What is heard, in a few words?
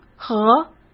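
"核" Mandarin Chinese; chinese flashcards; hsk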